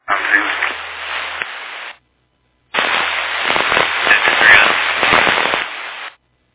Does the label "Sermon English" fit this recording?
No